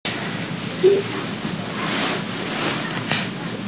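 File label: "bi4ye4", Mandarin Chinese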